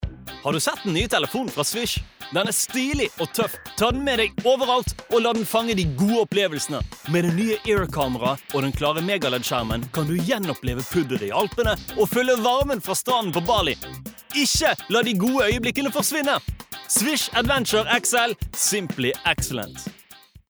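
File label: Norwegian fresh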